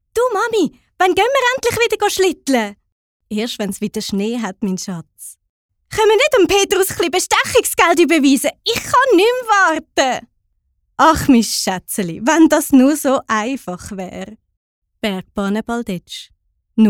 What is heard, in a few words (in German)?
sprecherin hoerspiel werbung